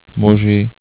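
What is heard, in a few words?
"muzi", Slovak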